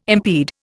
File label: "impede", Indian